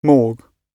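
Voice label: "morgue", British English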